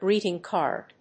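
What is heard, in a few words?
"greeting card", English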